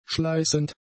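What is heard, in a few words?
"schleißend", German; adjectives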